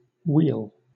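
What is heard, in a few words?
"weal", British English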